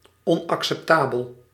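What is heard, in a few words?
"onacceptabel", Dutch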